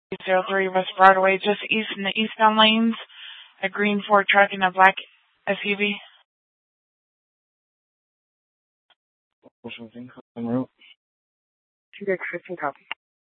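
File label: police dispatch, scanner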